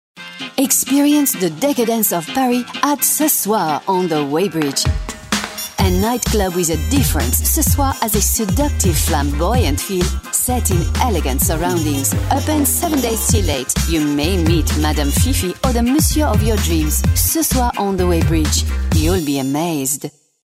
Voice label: french accent

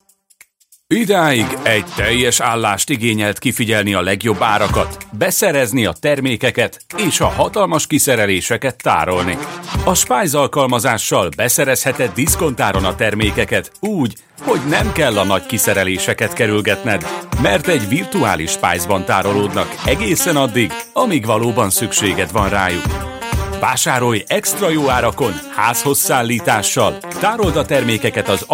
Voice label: hungarian voice commercial

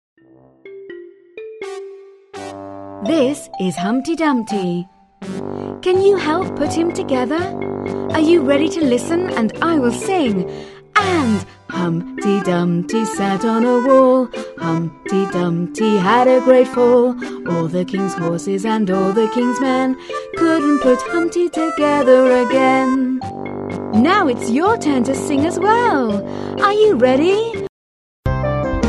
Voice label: nursery rhymes

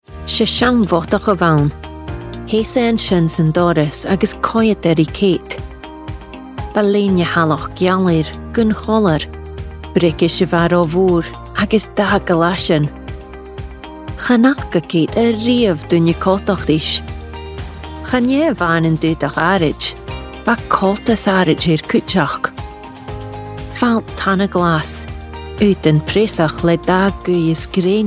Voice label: scottish gaelic female gaelic corporate

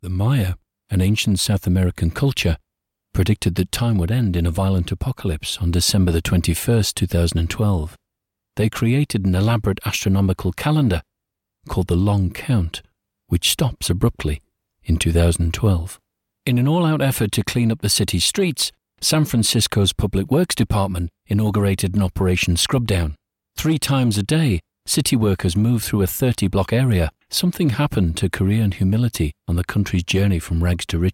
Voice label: documentary

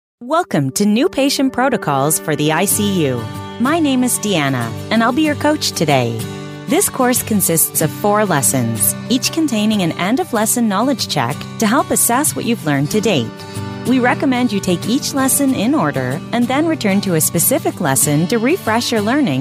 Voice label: american voice, elearning

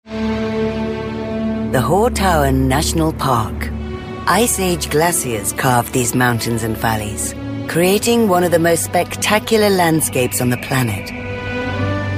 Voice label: documentary

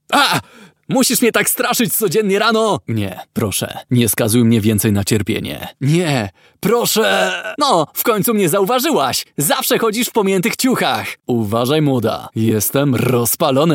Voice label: polish voiceover character

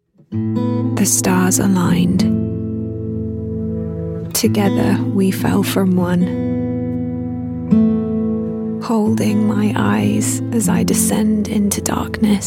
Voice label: emotional narration

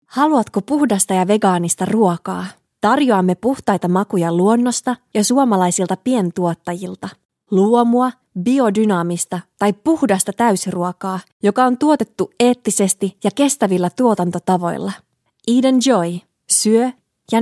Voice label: finnish female voice montage

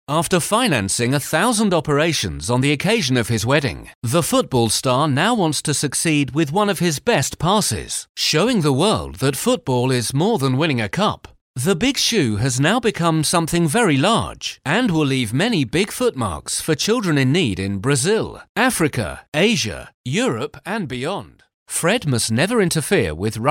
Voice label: narration

